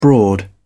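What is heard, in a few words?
"broad", British English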